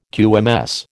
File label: "QMS", American